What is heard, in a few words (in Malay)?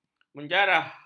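"menjarah", Malay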